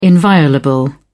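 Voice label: "inviolable", British English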